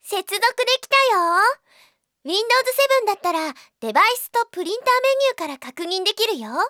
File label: device on, Japan Anime Girls; Windows; Bing; Nanako